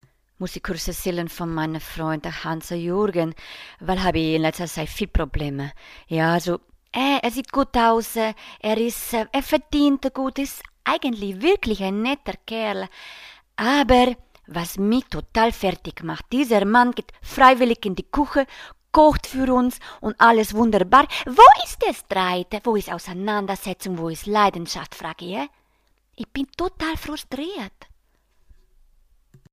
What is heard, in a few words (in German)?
italienisch